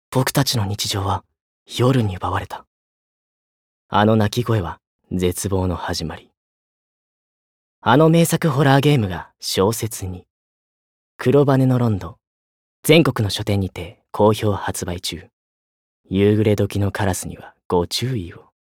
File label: Narration Serious